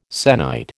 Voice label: "sennight", American